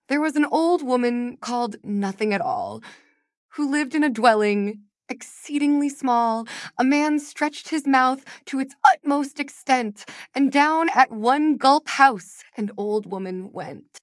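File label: There Was an Old Woman, female US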